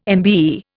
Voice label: "MBE", Indian

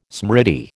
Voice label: "smriti", American

